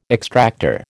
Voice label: "extractor", American